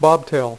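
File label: "bobtail" spoken, poker; iPoker 2000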